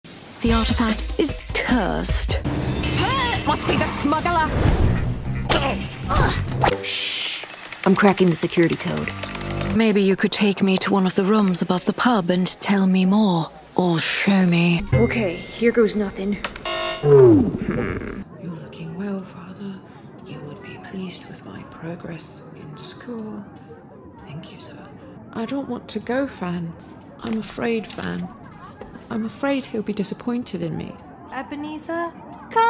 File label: Character